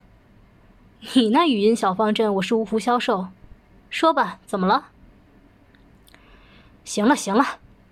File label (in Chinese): 女警人物台词